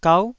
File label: "gau9", Taiwanese syllable